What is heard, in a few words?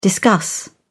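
"discuss", British English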